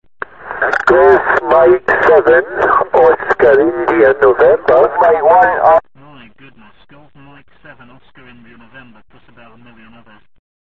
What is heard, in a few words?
qso